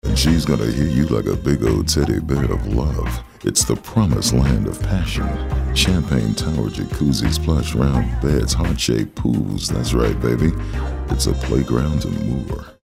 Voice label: Romantic